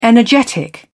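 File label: "energetic", British English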